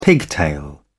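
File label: "pigtail", British English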